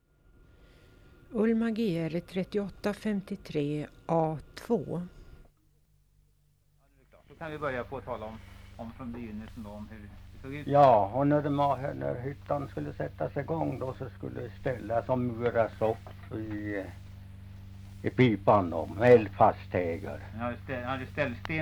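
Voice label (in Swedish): Edsbro Uppland, dialekter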